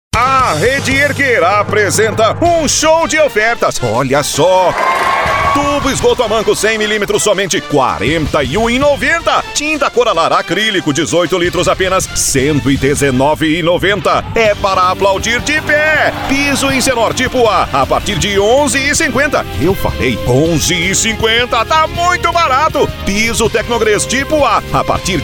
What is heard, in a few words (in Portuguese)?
locutor